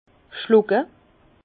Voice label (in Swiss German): "schlucke", Bas Rhin; vin; avaler